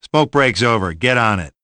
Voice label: jeers; ash

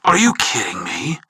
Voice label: jeers; tf

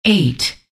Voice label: "eight", announcer; hl2dm; unreal

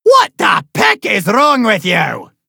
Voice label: oi you in the background; a hat in time

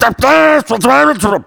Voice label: angry; tf

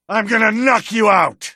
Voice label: battlecry

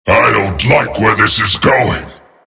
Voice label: jeers; tf; skeleton king

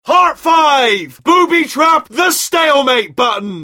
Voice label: rage; Wheatley

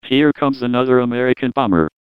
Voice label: taunt flip exert; pyro

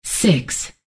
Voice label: "six", announcer; hl2dm; unreal

